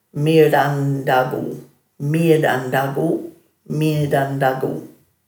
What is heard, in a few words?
"mirdandhgu", Dhurga